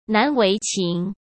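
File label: "难为情", Mandarin Chinese; hsk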